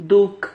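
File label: "duc", Spanish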